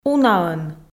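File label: "унаӧн", Komi, female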